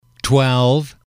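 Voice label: "twelve", English, male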